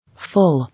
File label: "Full", English